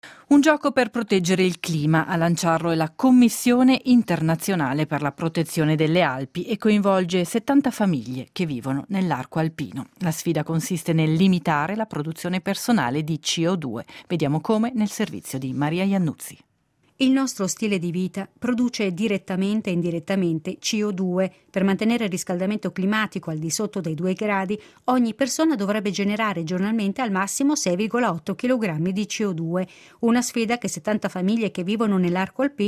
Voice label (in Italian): Radiogiornale